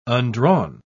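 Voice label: "undrawn", British English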